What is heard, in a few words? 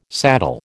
"saddle", American